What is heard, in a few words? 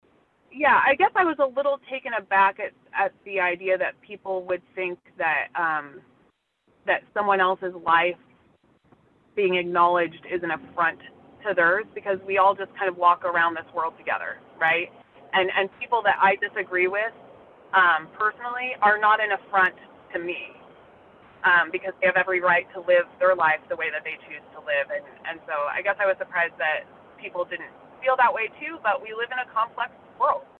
interview disagreement